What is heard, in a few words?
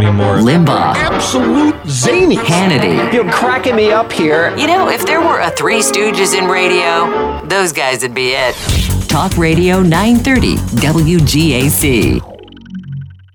News Imaging; radio